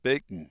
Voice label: casual